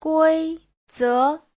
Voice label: "gui1 ze2", chinese; 规则; quy tắc, luật